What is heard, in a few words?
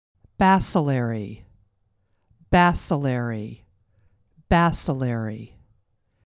"bacillary", pronunciation